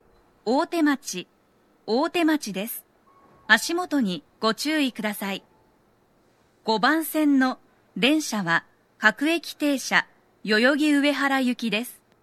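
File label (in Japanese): 5番線 到着放送 女声, 大手町駅; 放送は「各駅停車 代々木上原行」です。; 代々木上原・唐木田・伊勢原方面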